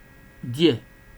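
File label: "dear", Nigerian Pidgin, male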